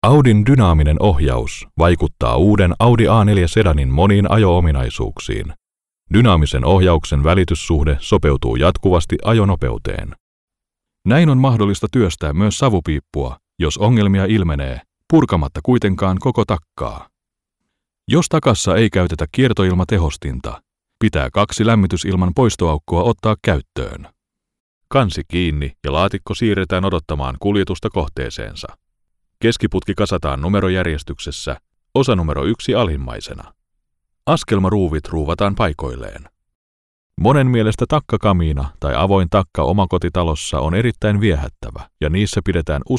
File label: finnish